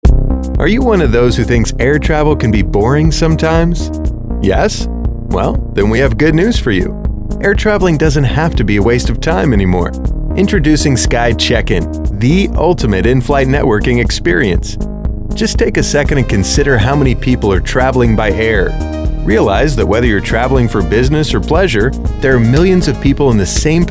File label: Video Narration